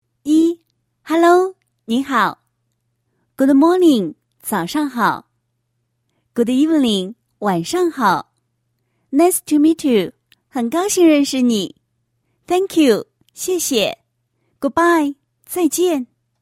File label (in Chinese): 女, 英语问候短语